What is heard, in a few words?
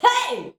"hey", shouts; Best Service; Hyper Dance